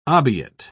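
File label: "obiit", British English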